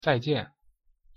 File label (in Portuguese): pronuncia mandarim tchau